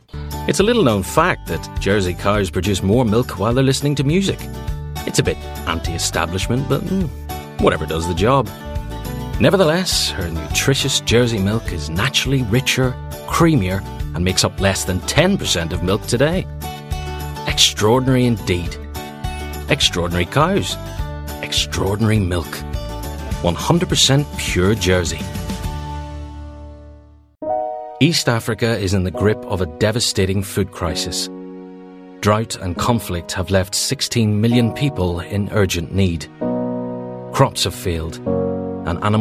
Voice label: Northern Irish